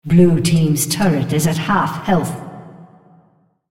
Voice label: female; OnTurretHalfKillblue